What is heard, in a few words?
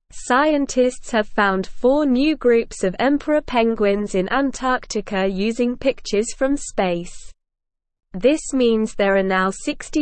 English Lower Intermediate SLOW Reading; New Homes Found for Big Penguins in Antarctica